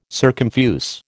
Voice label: "circumfuse", American

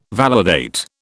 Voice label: "validate", British

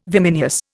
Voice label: "vimineous", Indian

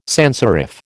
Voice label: "sanserif", American

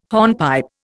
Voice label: "hornpipe", Indian

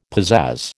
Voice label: "pizazz", American

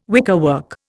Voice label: "wickerwork", Indian

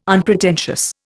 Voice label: "unpretentious", Indian

